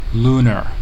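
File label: "lunar", US English; pronunciations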